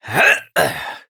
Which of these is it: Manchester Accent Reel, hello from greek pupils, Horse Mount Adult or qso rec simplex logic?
Horse Mount Adult